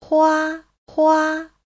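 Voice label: "huāhuā", Mandarin Chinese; 花花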